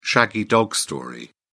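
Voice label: "shaggy dog story", British English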